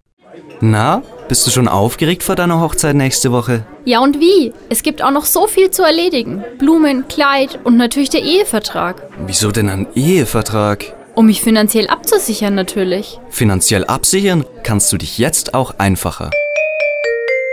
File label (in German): Werbung Sparkasse